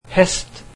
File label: norwegian horse